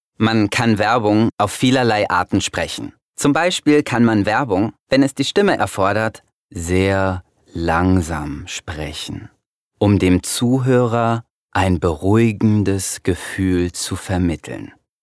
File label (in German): Erzählung